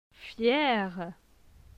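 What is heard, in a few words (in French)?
"Fier", French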